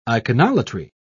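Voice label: "iconolatry", British English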